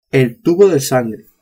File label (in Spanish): "tubo de sangre", spanish